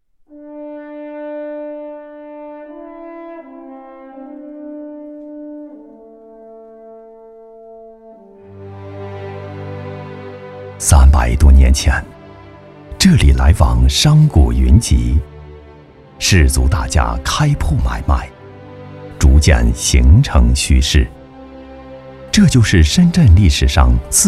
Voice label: 纪录片; 东门老街

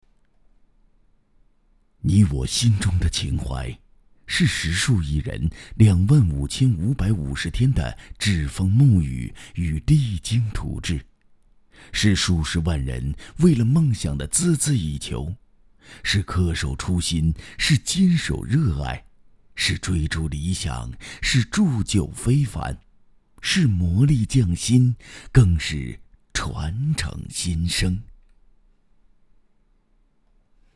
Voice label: 内心独白类广告微电影; 红旗70周年

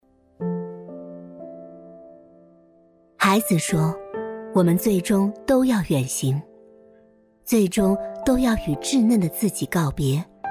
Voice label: 女 普 情感类

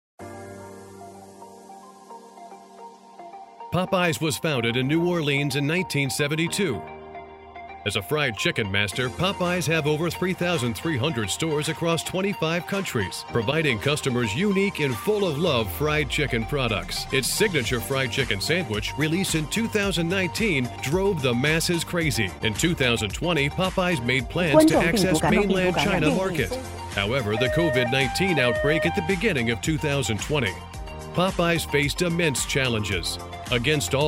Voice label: 外籍美式英语

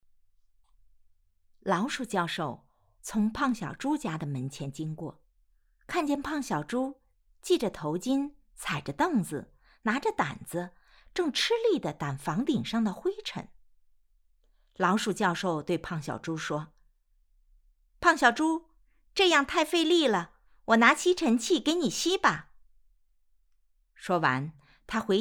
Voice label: 儿童 故事

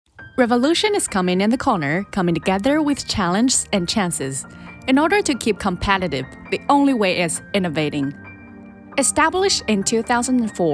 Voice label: 英 宣传 美音 宣传片 激情 自信 流畅 公司简介

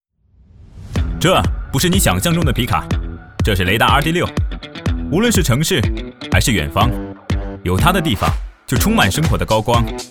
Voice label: 广告科技酷

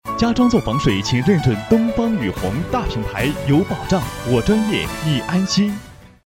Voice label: 加急电台

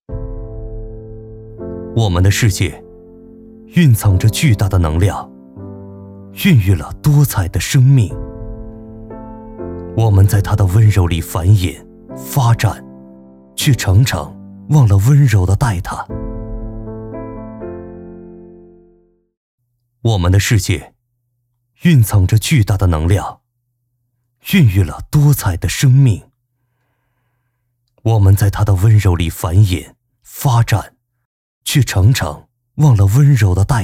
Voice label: 高级感大气走心浑厚

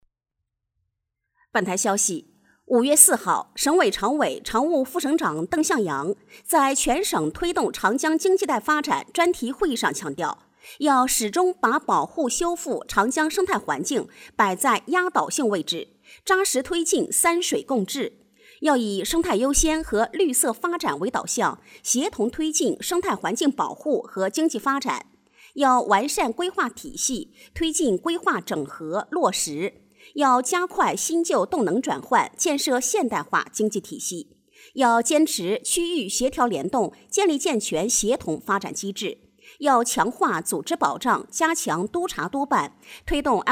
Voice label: 新闻

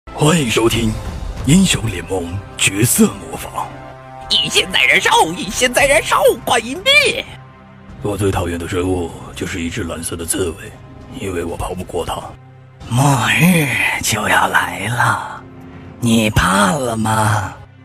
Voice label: 游戏动画配音; 神棍游戏动画配音

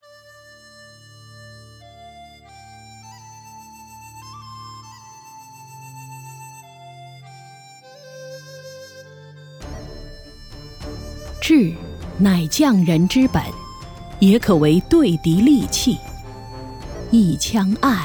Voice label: 广告厚重酒类广告

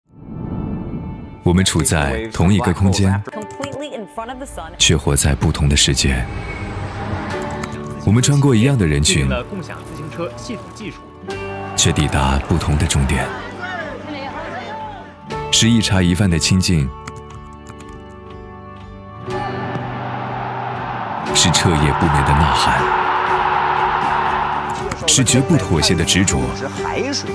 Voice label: 温暖时尚; 今日头条

